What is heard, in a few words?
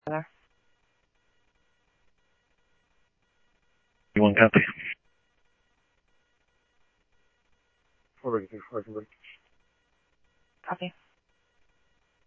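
Simulcast police department, scanner audio trunk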